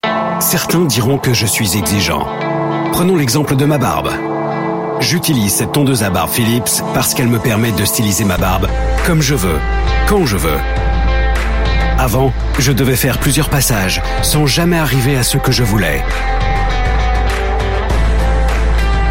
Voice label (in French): Ton Grave Dynamique; Pub Philips; Métro sexuel